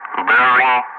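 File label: "bearing", English; Ka-50; Wingman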